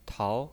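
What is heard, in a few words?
"tao", pinyin voice, second tone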